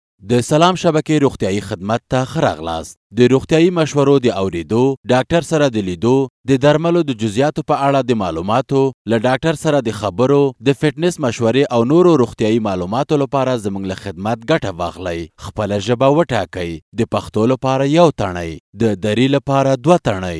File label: MALE PASHTO